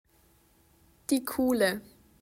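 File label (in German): "die Kuhle", German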